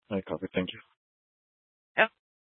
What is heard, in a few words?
Sheriff Dispatch, scanner